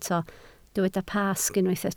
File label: "Pasg", Welsh